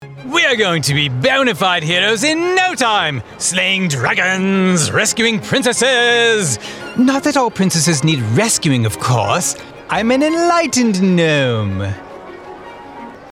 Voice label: british gnome